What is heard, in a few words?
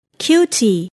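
"cutie", English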